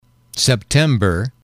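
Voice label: "september", English, male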